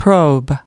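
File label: "probe", English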